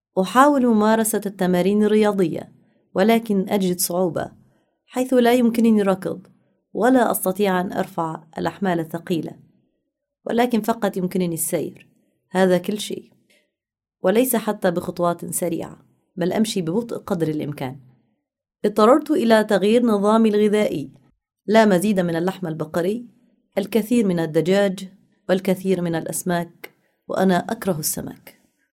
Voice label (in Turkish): Arapca Kadin Suudi Arapcasi, seslendirme; kadin sesler